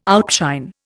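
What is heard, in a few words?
"outshine", Indian English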